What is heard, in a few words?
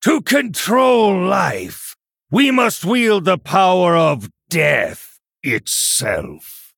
Male Human Play